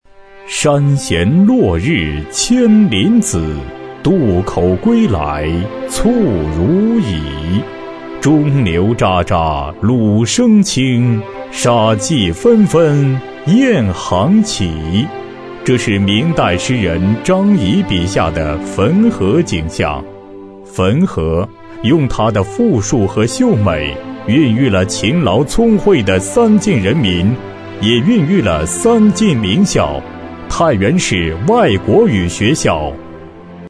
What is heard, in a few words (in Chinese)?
男声 学校宣传片配音